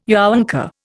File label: "younker", Indian